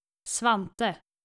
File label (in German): "svante", skandinavische jungennamen